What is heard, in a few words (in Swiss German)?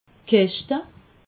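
"keschte", Alsatian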